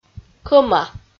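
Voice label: "кӧма", Komi-Zyrian, female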